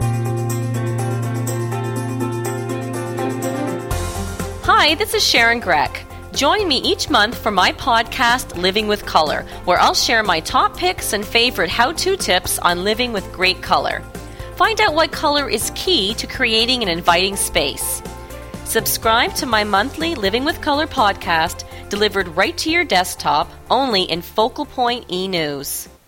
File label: Promo